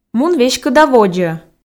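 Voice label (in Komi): мун веськыда водзӧ, Komi, female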